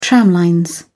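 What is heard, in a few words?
"tramlines", British English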